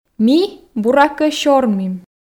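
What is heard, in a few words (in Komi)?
ми буракӧ сёрмим, Komi, female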